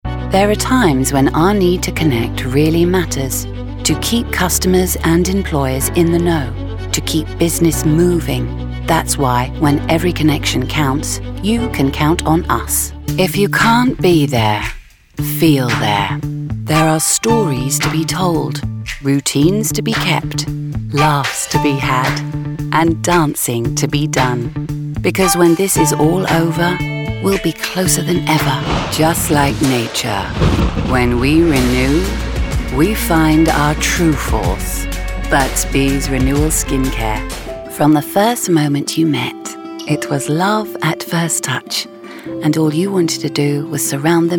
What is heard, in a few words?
commercial demo